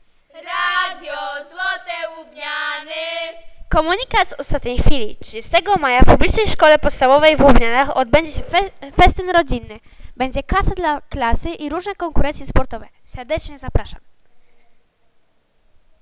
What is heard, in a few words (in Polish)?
spot reklamujący festyn